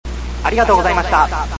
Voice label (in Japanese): sample echo